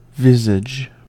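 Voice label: "visage", US English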